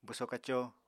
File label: "bwso-kaco", Apatani